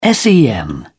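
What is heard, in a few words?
"sen", English, British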